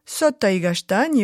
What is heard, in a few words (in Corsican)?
"sottu à i castagni", Moita Verde (nord)